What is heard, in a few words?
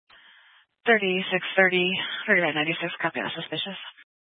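Police Dispatch, scanner audio recording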